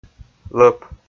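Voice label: "лӧп", Komi, male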